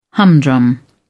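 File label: "humdrum", English, British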